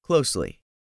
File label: "closely", english; 3000 words